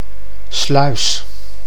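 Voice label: "Sluis", Dutch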